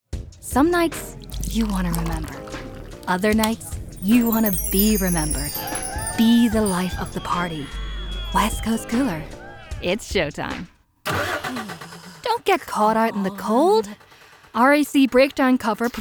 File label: Commercial